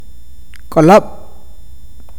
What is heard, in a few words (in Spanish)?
"huevo", awakateko